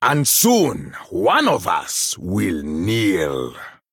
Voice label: Male Troll, MIRROR START; en

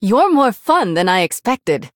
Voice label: Female Human Well Played; en

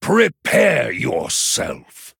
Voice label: Male Human Greetings; en

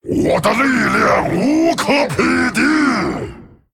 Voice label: Male Dragon Picked; zh

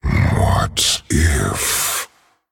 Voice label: Male Dragon Thinking; Thinking2; Maybe...; en; 风暴巨龙迦拉克隆（英雄）

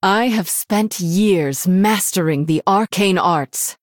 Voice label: Female Human Picked; en

